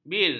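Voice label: "bir", Malay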